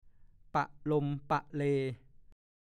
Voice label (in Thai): "ปะลมปะเล", ภาษาโคราช; ดูคำว่า ปะลม